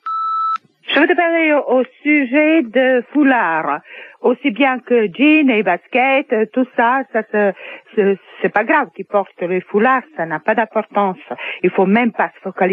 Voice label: répondeur

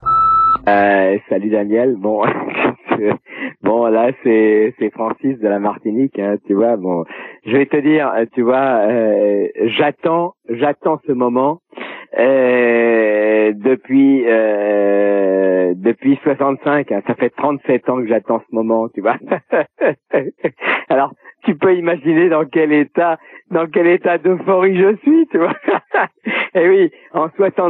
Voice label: Electeur FN repondeur

